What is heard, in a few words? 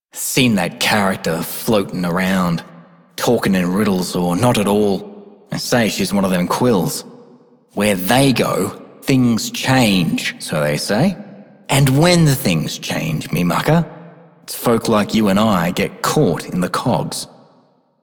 male generic; Dialog; Warframe; Solaris Venus